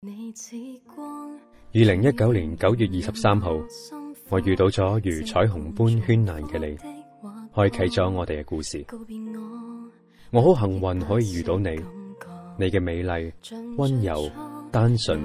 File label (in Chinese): 粤男 旁白